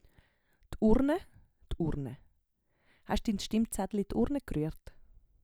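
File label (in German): d Urne, Swiss German; Wortschatz Kapitel 7; Kapitel 7 Hauptwortschatz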